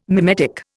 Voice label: "mimetic", Indian

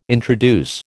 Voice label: "introduce", American

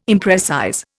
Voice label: "imprecise", Indian

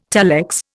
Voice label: "telex", Indian

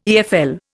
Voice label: "EFL", Indian

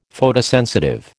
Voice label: "Photosensitive", American

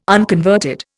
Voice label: "unconverted", Indian

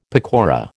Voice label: "pakora", American

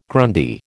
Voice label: "Grundy", American

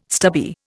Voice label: "stubby", Indian

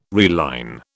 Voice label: "reline", British

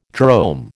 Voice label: "drome", American

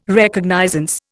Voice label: "Recognizance", Indian

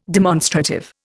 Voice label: "demonstrative", Indian

